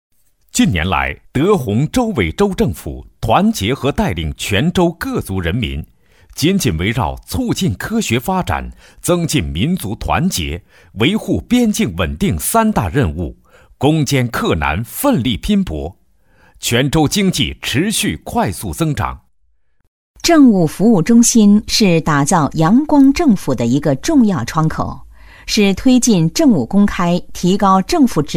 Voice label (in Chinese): 专题 男女合配; 德宏